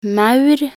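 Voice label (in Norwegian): "maur", Norwegian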